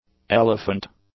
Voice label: "elephant", English; nouns